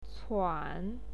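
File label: "cuan", Mandarin Chinese, third tone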